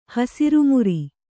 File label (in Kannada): "ಹಸಿರುಮುರಿ", Kannada